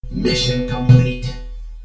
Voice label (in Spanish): voz robot